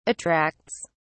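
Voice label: "attracts", British English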